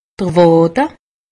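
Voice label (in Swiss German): "waade", Haut Rhin; medecine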